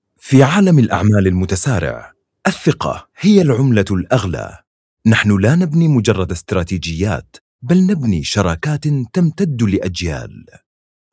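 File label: Arabic General; GoLocalise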